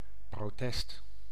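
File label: "protest", Dutch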